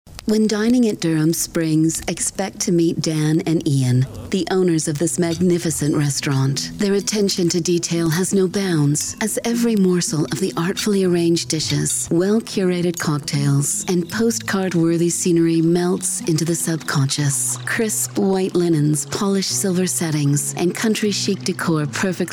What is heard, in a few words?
Radio; Durham Springs